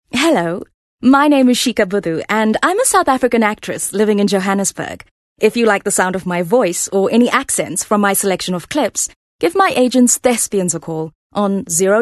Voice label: Conversatinal, voice artist